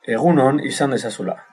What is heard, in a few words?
have a nice day, basque